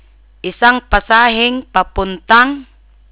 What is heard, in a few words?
"ticket", Tagalog; word of day